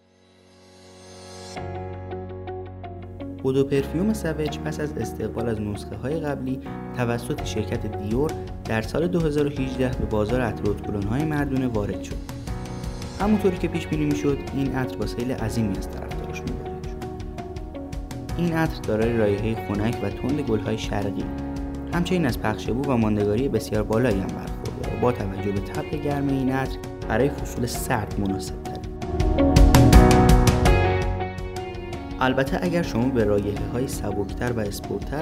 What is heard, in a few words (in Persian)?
VOICE PERSIAN; DIOR SAUVAGE EDP